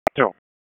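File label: Raleigh Police Northeast District Dispatch, p25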